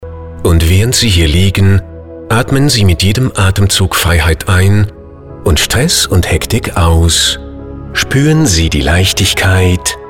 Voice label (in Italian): Spot; Radiospot Sommer deutsch